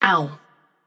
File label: ouch passion; chaac